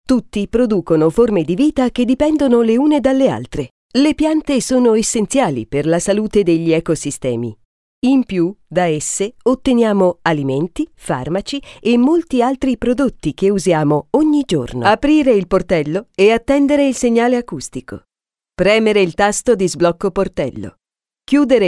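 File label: woman, Italian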